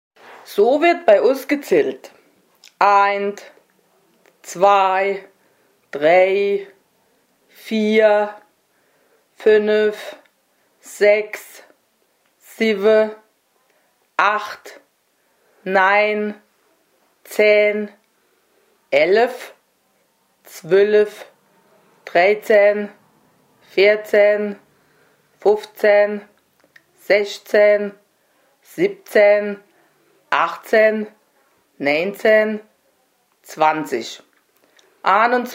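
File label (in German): Zahlen, O-Ton Hunsrück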